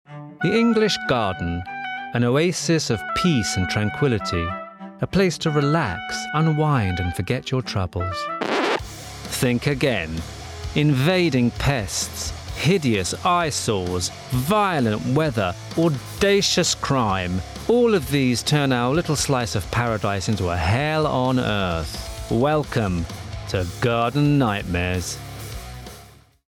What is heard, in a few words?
Documentary Sample; AdVoice